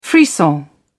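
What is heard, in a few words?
"frisson", British English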